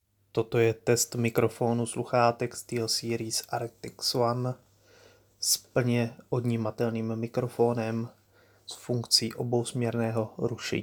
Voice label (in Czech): testmikrofonu SteelSeries Arctis